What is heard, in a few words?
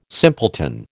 "simpleton", American